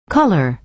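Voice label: "color", American English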